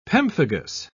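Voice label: "pemphigus", English, British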